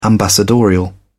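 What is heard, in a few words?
"ambassadorial", English, British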